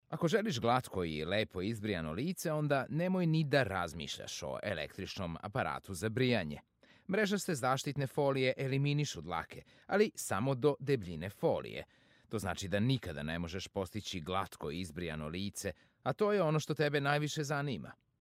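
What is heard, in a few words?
Serbian, General; GoLocalise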